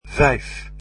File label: "five", Dutch